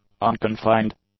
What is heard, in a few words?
"unconfined", English; adjectives